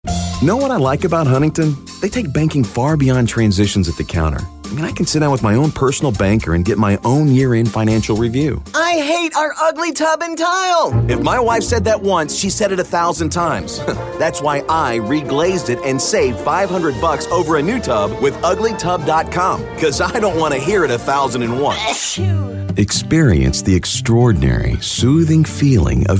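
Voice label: English US, Commercial; GoLocalise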